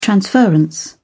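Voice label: "transference", British English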